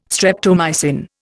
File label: "streptomycin", Indian